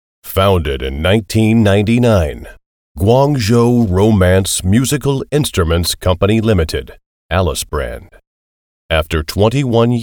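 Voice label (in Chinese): 外籍英男宣传; 校对新分钟版爱丽丝宣传成品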